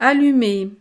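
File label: "allumer", French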